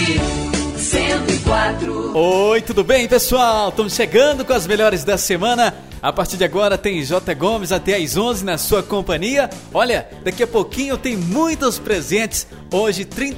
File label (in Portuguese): locução para rádio popular